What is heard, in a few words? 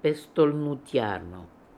"Pestolnootiarly", pronounciations; Fourth Way; Beelzebub pronounciations